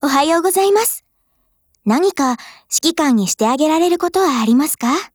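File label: HELLO, Japanese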